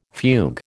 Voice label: "fugue", American

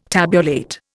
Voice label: "tabulate", Indian

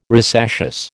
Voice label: "rosaceous", American

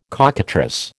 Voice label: "cockatrice", American

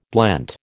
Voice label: "blent", American

